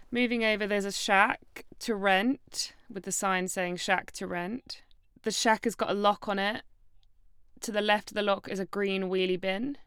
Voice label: 22 year old woman